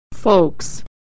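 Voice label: "folks", American English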